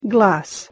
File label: "glas" Bosnian